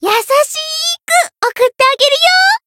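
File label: encounter, jp; ilulu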